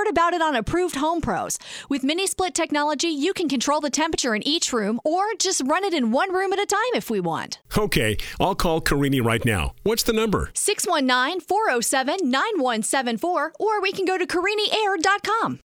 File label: Heating and Air Conditioning Radio Commercial; Carini